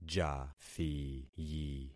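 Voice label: "jathiyi", concatenated syllables; Affixing experiment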